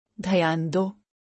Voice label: Pay attention urdu; Time and Routine